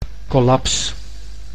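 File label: "collaps", Dutch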